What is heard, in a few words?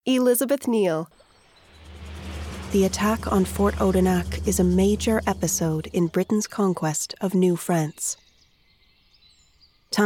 narration, Narration Demo; Media Commercial Demo